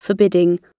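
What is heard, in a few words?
"forbidding", British English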